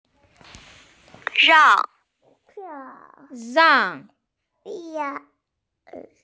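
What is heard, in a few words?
"rang4", Mandarin Chinese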